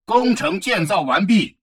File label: advisor notification construction complete, chinese; Stellaris